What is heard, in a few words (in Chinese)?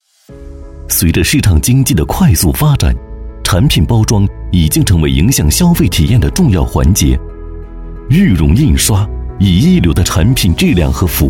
A男 宣传片; 裕荣印刷厂